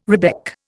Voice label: "rebec", Indian

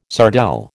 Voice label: "sardelle", American

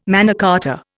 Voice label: "Magna Carta", Indian

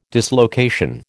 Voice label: "dislocation", American

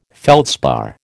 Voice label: "feldspar", American

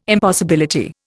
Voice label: "impossibility", Indian

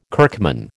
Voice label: "kirkman", American

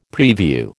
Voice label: "prevue", American

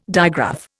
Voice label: "digraph", Indian

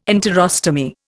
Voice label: "enterostomy", Indian

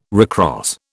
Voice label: "recross", British

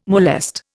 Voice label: "molest", Indian

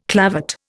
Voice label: "clavate", Indian

